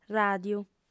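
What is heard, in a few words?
"ràdiu", female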